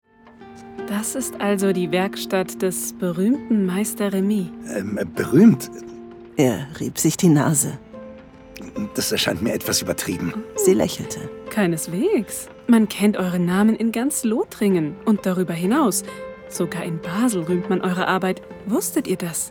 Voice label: Hoerspiel

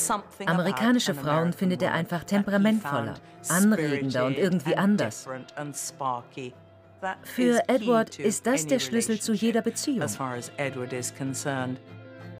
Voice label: amerikanische Frauen; ZDF History

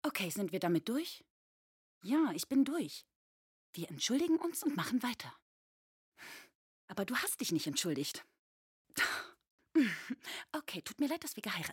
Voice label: Realsynchron

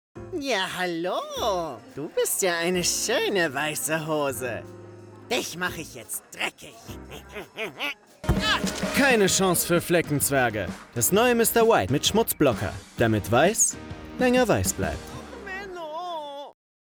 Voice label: Werbung Putzmittel

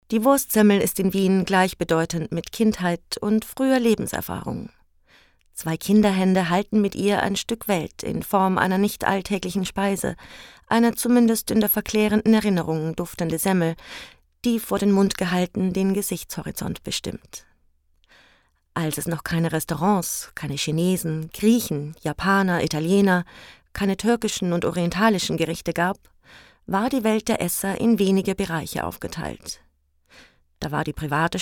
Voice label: Feature Dokumentation; Die Wurstsemmel

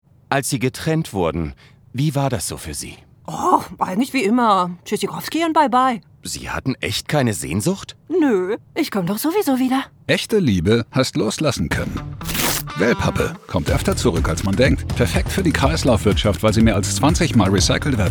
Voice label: Werbung Radiospot Wellpappe Sprecherin

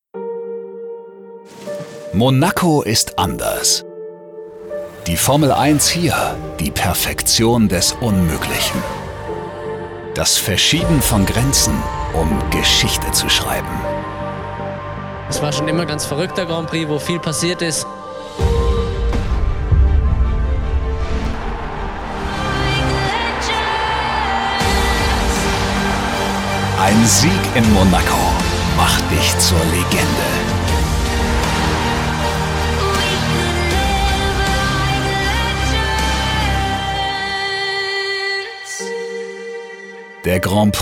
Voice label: Trailer; Sky Formel

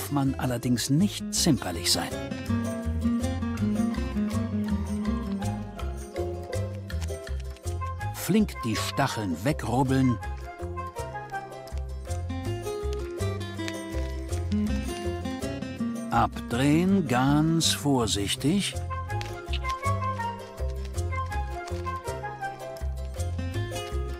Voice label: Kakteen Voiceover Kommentar; NDR

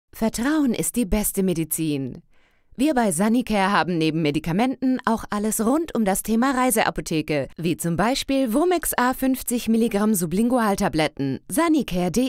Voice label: DE TVC Synchron; Vomex; Sanicare

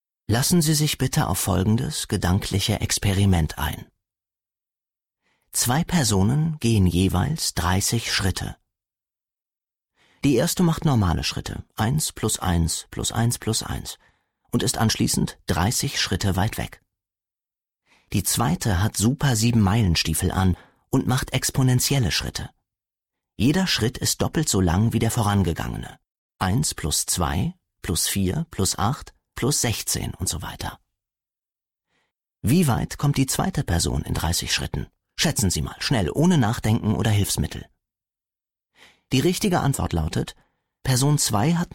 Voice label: Hoerbuch; Das Experiment sind wir Kapitel 1 kurz

